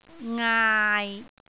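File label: "ngaai6", Cantonese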